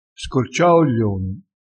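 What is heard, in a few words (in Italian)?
"scorcia", dialettale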